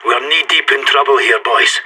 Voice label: scared emote

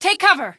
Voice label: take cover, female; npc; Garry's Mod; hl2

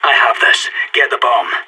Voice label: waiting for human to defuse bomb, player vo sas

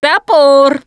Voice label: take frying axe, russian, Survivor Voice Producer

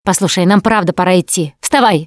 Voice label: revive friend loud, Russian; Producer

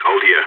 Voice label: radio bot hold, vo balkan; Counter-Strike Global Offensive

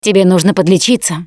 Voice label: suggest health, russian; Producer

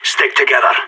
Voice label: radio bot regroup, player vo sas

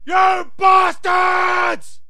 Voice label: man insult; Mount&Blade Warband

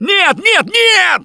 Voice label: grabbed by smoker, russian, Survivor Voice; Gambler

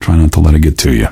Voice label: answer, male; Garry's Mod; hl2

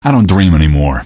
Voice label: question, male; Garry's Mod; hl2

